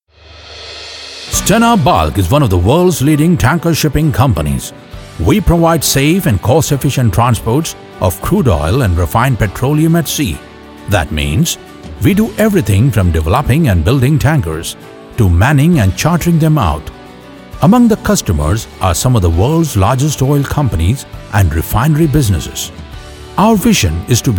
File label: Corporate English